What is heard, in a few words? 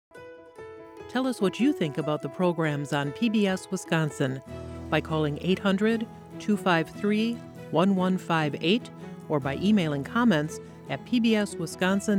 Viewer Comments, female; Promotions; Rebrands